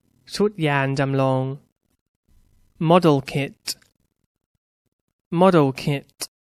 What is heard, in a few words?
"model kit", English; Toys